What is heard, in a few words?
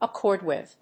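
"accord with", English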